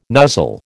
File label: "nuzzle", American